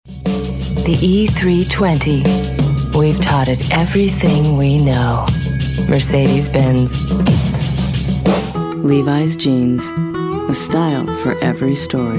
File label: female commercial